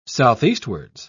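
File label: "southeastwards", English, British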